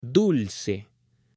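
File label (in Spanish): "dulce" Spanish, female; ALIMENTOS; AppLenguaje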